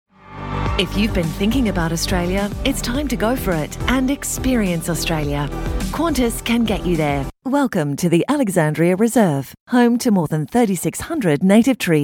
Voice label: Commercial

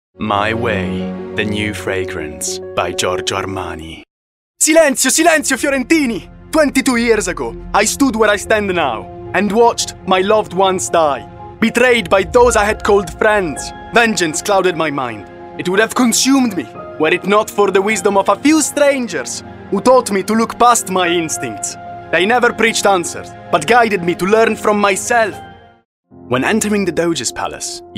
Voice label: English Reel

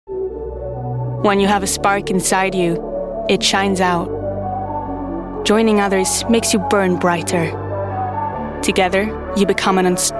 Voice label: English Commercial

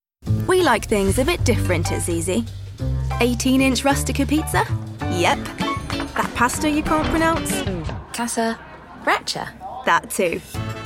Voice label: VO Commercial

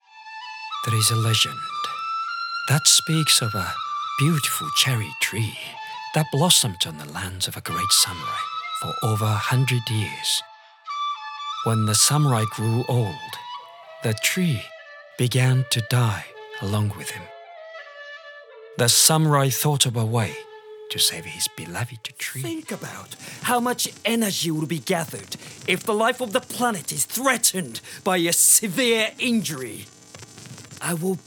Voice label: English

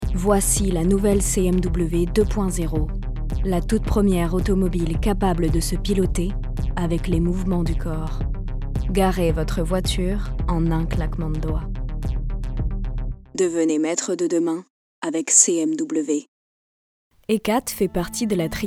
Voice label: FRENCH VOICE

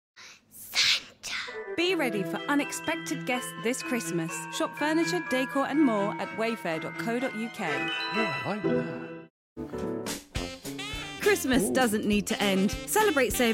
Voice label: voice over

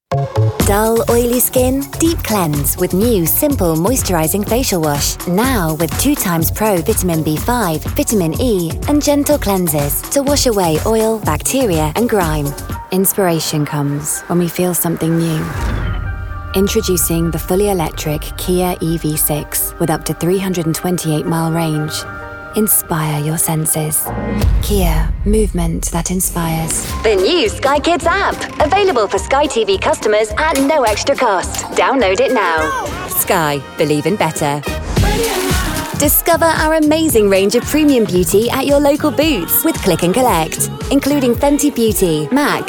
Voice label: Commercial

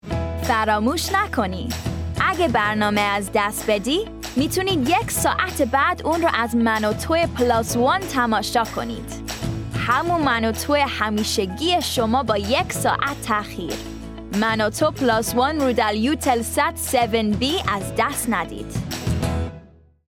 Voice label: Farsi Language

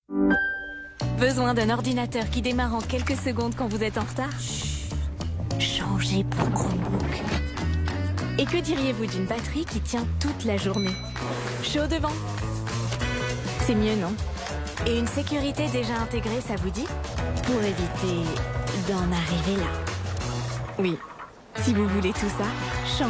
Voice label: French

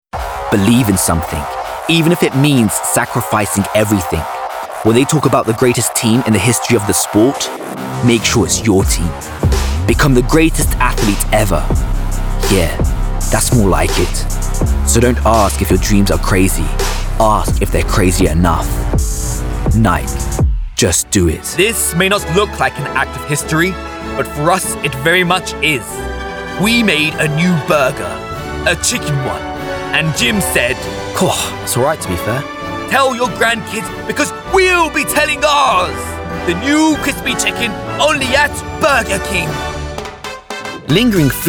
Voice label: Commercial

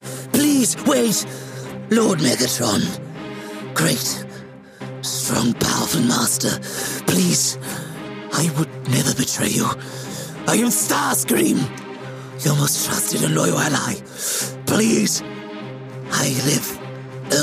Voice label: Animation Voice

